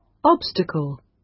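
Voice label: "obstacle", British English; Time, history; Thời gian, lịch sử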